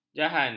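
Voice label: "jahan", Malay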